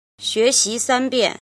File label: "jin", Chinese